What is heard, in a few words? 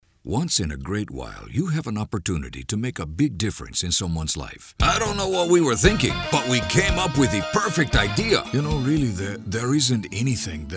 Male Voice Over Talents Foreign Hispanic English